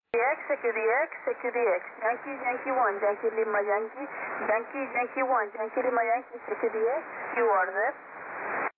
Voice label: grecia on 15m ssb

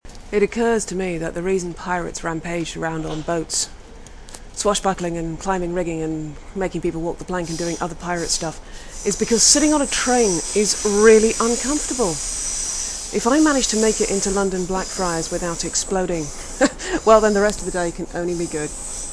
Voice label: pirate

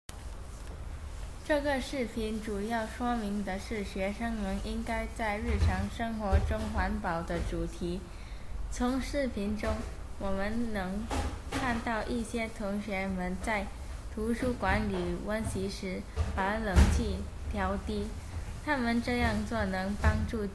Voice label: chinese oral practice recycling

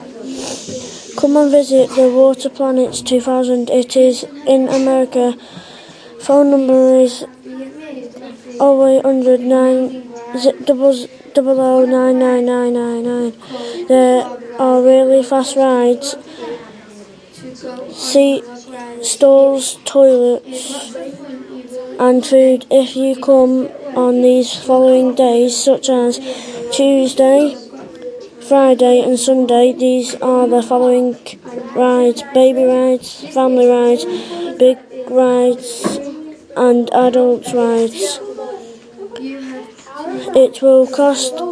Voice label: radio advert

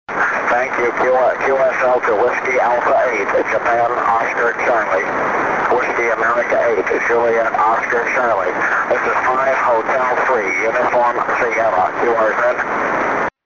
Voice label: 6m ssb

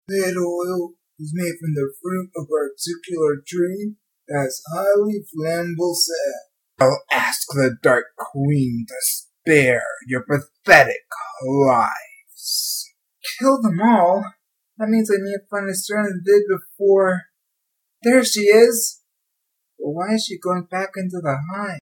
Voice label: video game voice over samples

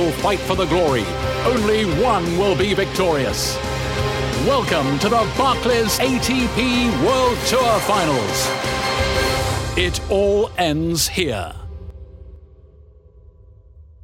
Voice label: voice of god, tennis arena; atp world tennis 2013 o2 arena

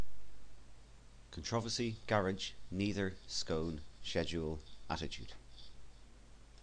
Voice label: Dublin Ireland male

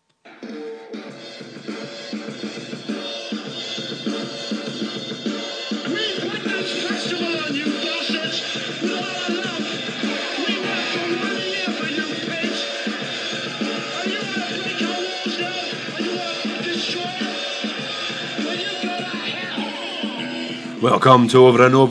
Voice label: advert

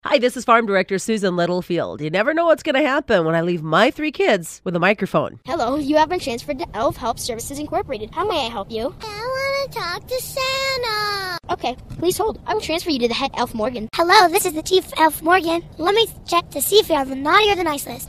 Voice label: holiday greeting from the kids

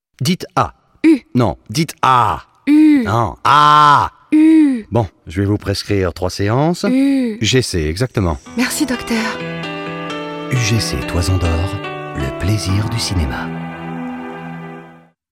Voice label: spot radio; ugc dites ahh

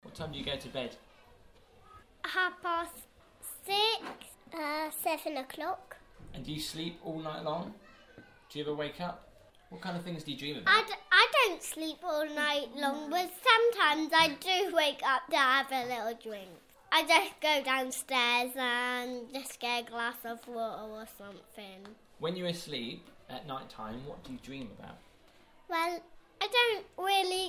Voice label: kids talk; Grove Primary

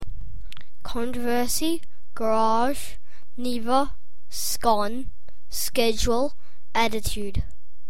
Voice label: male, Australia; melbourne 2002

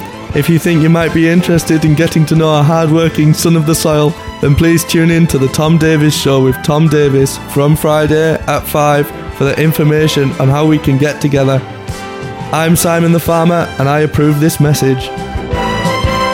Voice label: voiceover lonely hearts

